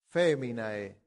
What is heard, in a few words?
"feminae", Latin; santillana